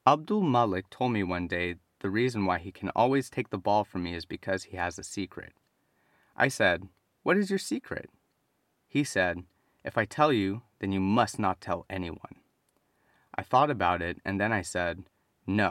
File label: Reading